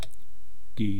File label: "tii", East Cree syllabics